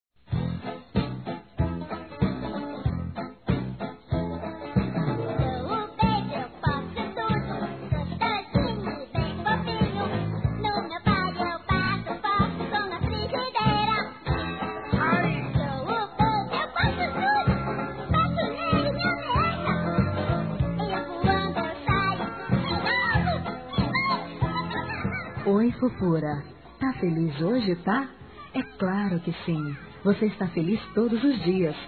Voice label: NEUTRO, Aniversário Infantil; Telemensagens

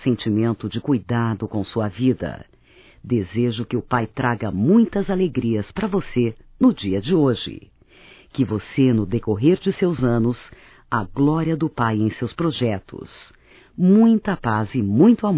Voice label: ANIV IRMã (F), Evangelico; Telemensagens 2017

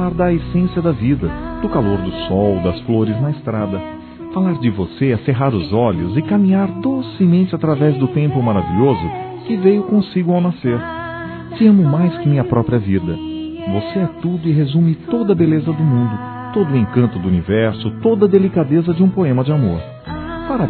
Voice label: Aniversário Neta Masculino; Aniversários; Aniv Familiar

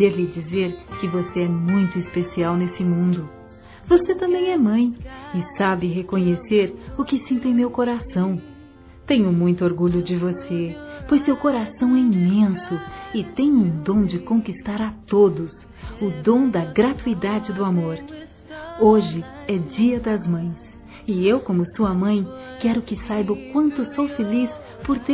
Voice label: Dia das mãe p filha, F; Telemensagens 2017

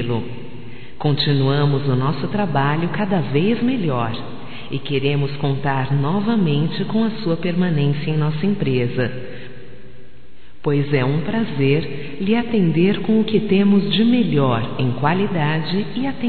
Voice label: Sentimos Sua Falta Feminino

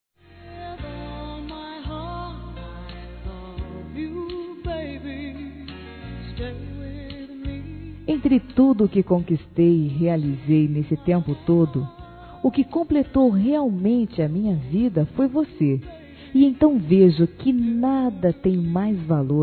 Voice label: NETO(A)-F, Telemensagens; Aniversário Infantil

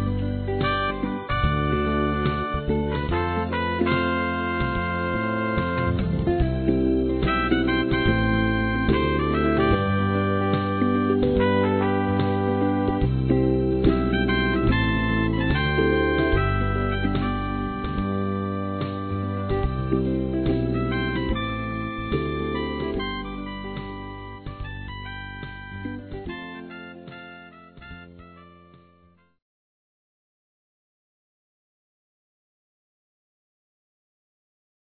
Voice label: VOCÊ ME DEIXA LOUCA, fem; Telemensagens; Sensuais

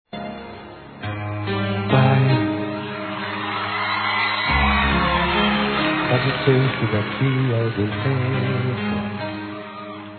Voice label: VOCÊ É ESPECIAL (INFANTIL); Telemensagens 2017

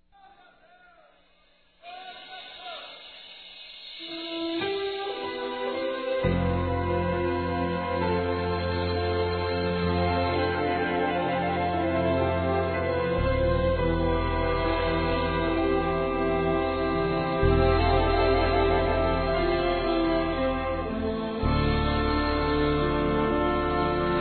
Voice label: Aniversário Neutro Evangelico MASCULINO

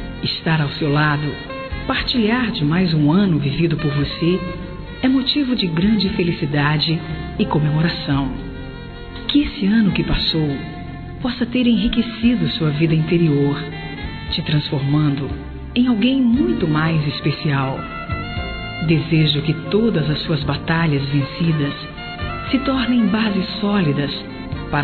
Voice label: Aniversário Sobrinha Feminino; Aniv Familiar

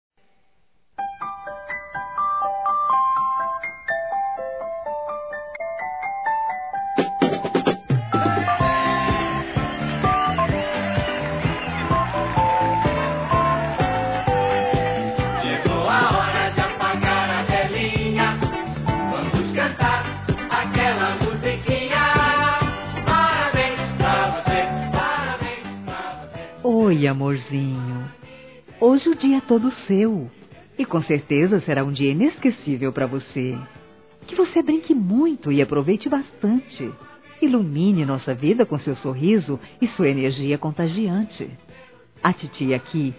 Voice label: SOBRINHO Criança Feminino, Aniversário Infantil; Telemensagens

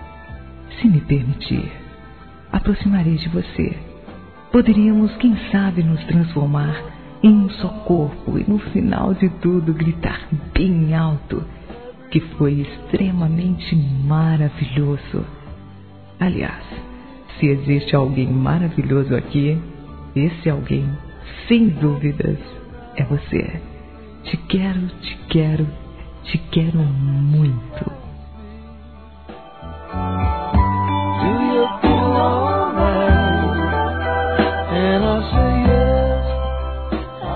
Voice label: Delícia Delícioso Coisa Gostosa, Sensuais fem; Telemensagens

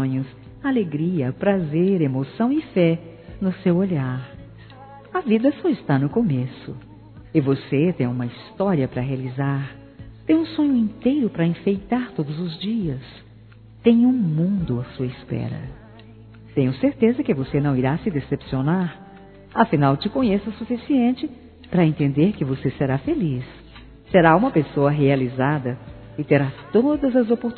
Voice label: Aniversário Alguém Especial Feminino; Aniversários; Aniversários diversos

